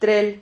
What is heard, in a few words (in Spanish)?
"trel", Silabas